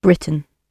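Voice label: "britain", British English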